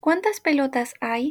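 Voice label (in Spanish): "pelotas", Spanish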